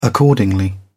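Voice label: "accordingly", British English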